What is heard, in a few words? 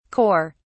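"kore", British English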